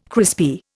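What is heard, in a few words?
"crispy", Indian English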